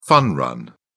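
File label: "fun run", British English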